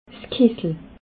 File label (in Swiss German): "kisse", alsace